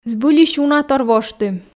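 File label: "збыльысь унатор воштім", Komi, female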